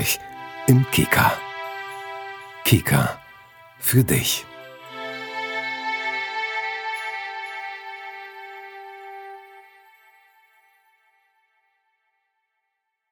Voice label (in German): werbung der kleine eisbär